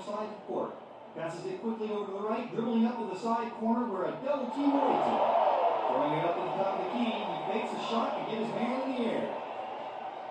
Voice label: Television; Basketball Game With Announcer, Crowd And Players. From Next Room.